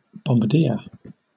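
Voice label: "bombardier", British English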